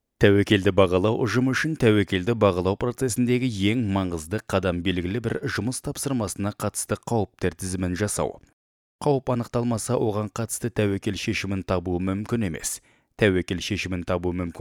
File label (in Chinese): 哈萨克语 男 叙述